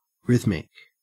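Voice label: "rhythmic", Australian English; pronunciations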